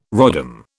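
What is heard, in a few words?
"rodham", British English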